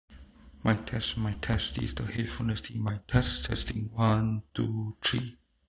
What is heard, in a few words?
Quiet; Edifier Neobuds Pro